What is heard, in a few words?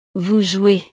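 "vous jouez", French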